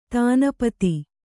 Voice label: "ದಿನಪತಿ", kannada

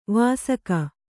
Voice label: "ವಾಸಕ", kannada

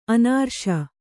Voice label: "ಅನೌರಸ", kannada

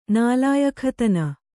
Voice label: "ನಾಲಾಯಖತನ", Kannada

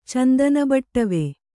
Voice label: "ಚಂದನಬಟ್ಟವೆ", Kannada

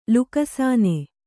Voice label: "ಲುಕ್ಸಾನ", Kannada

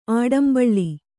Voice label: "ಆಡಂಬಳ್ಳಿ", Kannada

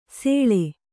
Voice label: "ಸೆಳೆ", Kannada

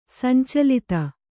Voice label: "ಸಂಚಲಿತೆ", Kannada

